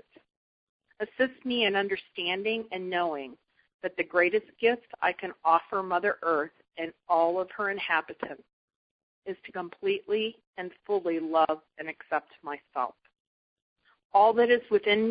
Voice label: Arcturian Peace Meditation